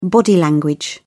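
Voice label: "body language", British English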